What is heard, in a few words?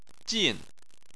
"jin4", Chinese